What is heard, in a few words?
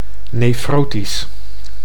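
"nefrotisch", Dutch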